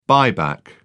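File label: "buyback", English, British English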